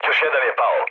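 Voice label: Serbian player killed enemy mood low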